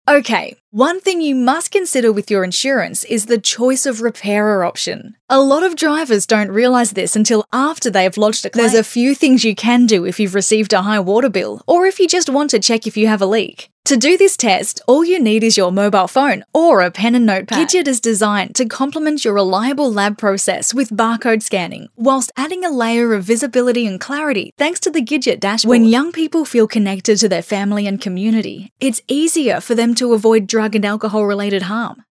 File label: Natural; Voice Overs